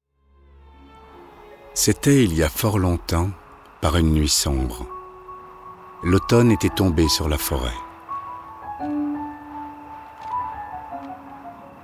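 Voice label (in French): French, male, narration; voice demos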